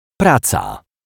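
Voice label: "praca", Polish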